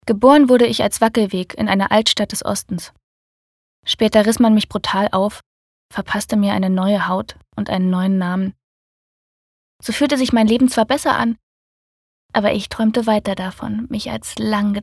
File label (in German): Der Weg Sanft